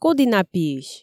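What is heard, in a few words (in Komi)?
"коді на пиысь", Komi, female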